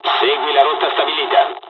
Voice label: storm flight, dialogs italian; War Thunder

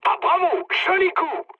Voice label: bomb success mood med, speech fr; War Thunder

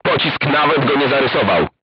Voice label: voice message armor not pierce, crew tanks polish

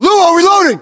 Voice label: US inform reloading, battlechatter, english

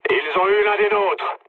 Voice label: aircraft destroyed mood low, speech fr

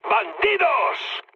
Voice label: enemy detected mood low; War Thunder

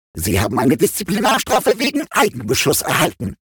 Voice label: robot Friendly Fire Punishment; World Of Warships

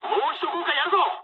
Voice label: bomb failure mood high, speech jp; War Thunder

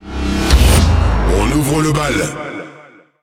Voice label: Speech First Kill, FR; World Of Warships

